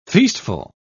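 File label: "feastful", British English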